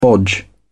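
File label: "bodge", British English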